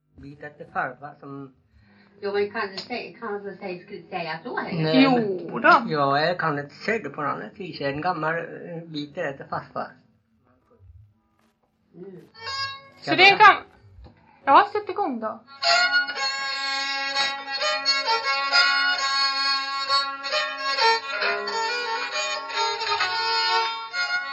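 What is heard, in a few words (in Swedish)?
Hedesunda Gästrikland, svenska sagor dialekter; Folkminnen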